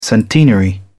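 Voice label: "centenary", British English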